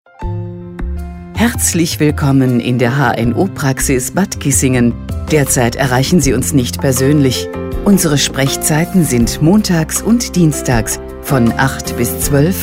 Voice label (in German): Ansage stereo